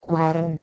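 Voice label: "gwerh2n", Proto-Indo-European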